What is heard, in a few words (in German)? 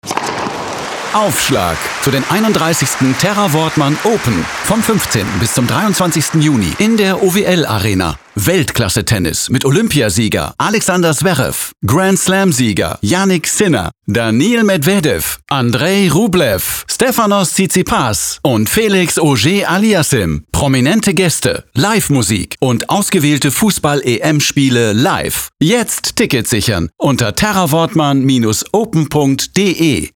Werbung